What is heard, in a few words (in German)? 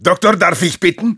Spy activate charge, de; "Doktor, darf ich bitten."